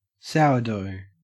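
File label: "sourdough", Australian English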